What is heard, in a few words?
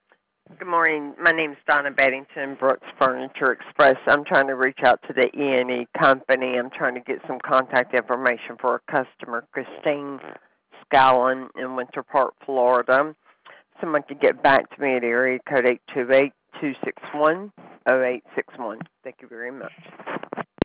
voicemail